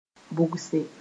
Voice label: "bʋgse", Moore; divine

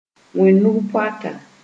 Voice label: "wẽ nug-poaka", moore

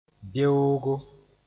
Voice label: "beoogo", moore

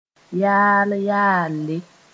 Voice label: "yaal-yaale", moore; a little bit

